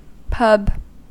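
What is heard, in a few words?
"pub", English, US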